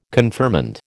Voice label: "confirmand", American